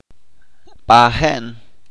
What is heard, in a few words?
"escasearse", Chalchiteko